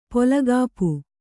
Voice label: "ಪೊಲಗಾಪು", Kannada

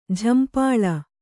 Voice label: "ಝಂಪಾಳಿ", kannada

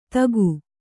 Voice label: "ಥಾಕು", Kannada

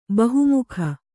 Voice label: "ಬಹುಮುಖಿ", Kannada

